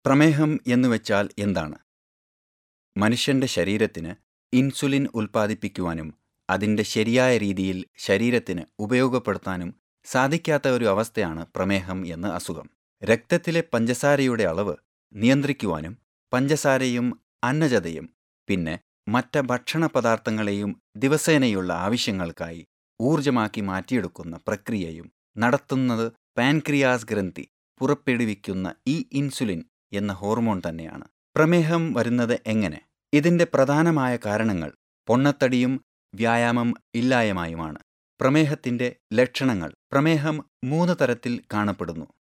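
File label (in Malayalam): Narration in Malayalam; Diabetes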